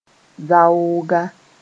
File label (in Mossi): "zaooga", moore